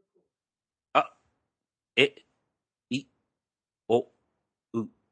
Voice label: Short Vowels, Maori; Maori Students